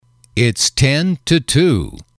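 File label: its ten to two, English, male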